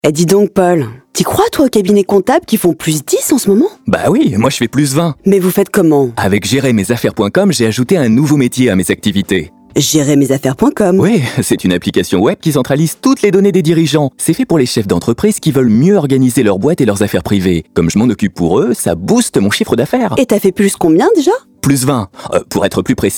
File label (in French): VF duo